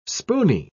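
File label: "spooney", British English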